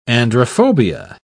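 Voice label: "androphobia", British English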